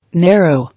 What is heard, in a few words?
"Narrow", English